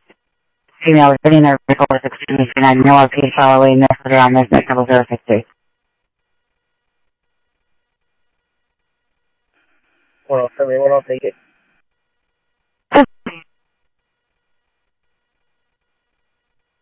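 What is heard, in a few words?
police radio dispatch, Scottsdale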